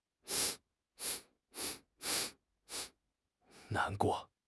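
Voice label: "nan2 guo4", Mandarin Chinese; HSK3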